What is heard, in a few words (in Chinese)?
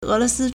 "e2luo2si1", Chinese